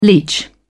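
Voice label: "leech", British English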